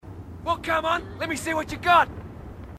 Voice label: marine, aussie; h2dialogue